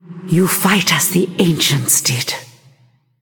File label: Female Ghost Well Played; en